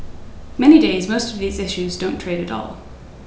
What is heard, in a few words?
Reverb near